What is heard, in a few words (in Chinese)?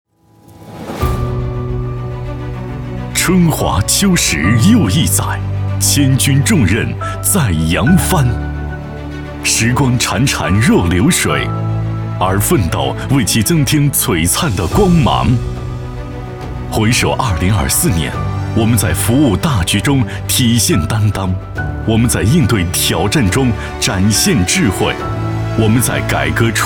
男, 年终总结; 春华秋实又一载